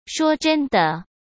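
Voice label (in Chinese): "说真的", Mandarin Chinese; chinese flashcards; hsk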